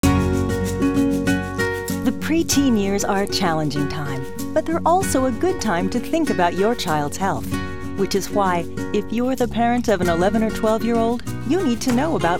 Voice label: Radio English

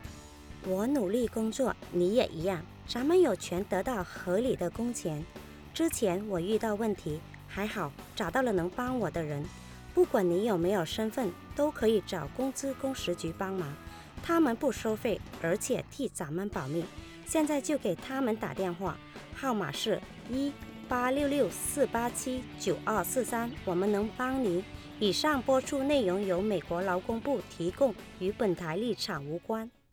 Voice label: Worker Mandarin

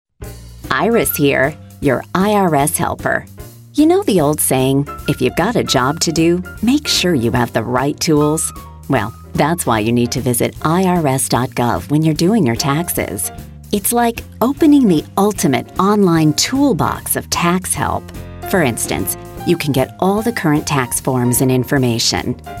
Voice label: radio psa english; irs; online tools

